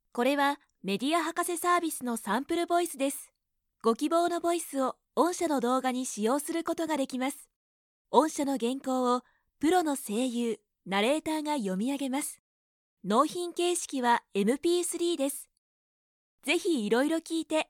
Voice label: natural, narration sample voice women